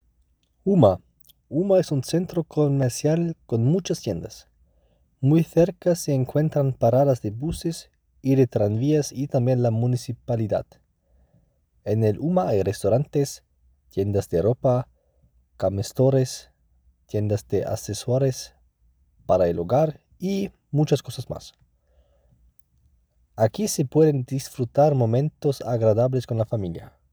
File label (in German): Spanisch